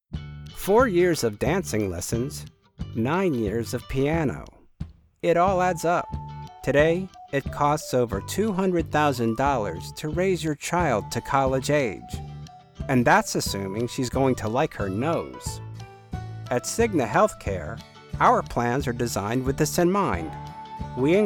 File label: Commercial; CIGNA